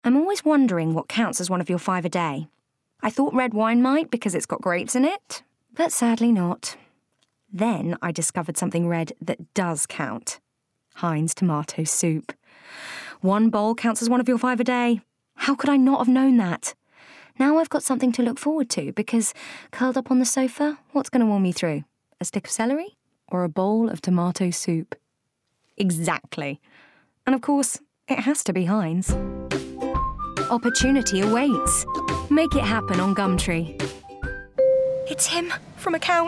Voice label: Commercials Montage; Actress